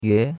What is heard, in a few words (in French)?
"yue2", chinois; Cours-de-Chinois